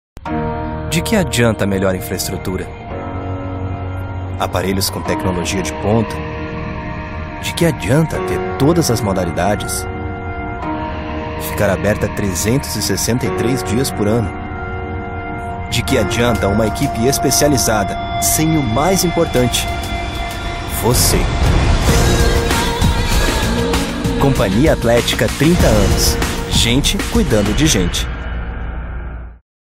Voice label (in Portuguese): INSTITUCIONAL; Cia Athletica